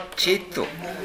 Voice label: "chetto", minamiyamagata

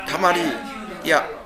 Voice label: "tamariya", minamiyamagata

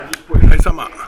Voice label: "raisama", minamiyamagata

